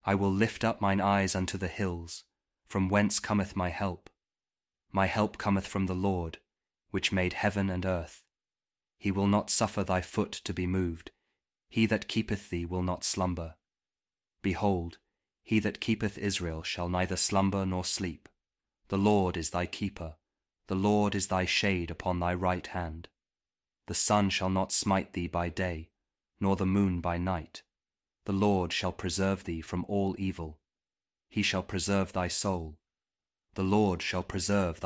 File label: psalm kjv king james audio bible; psalm 121